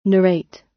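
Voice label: "narrate", British; Language, linguistics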